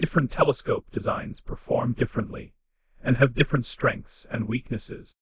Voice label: british male to american male